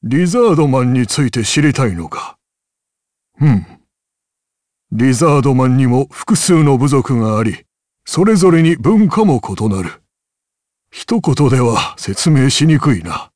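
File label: vox dialogue, voices, Japanese; kingsraid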